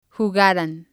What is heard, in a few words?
"jugaran", spanish